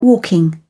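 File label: "walking", British English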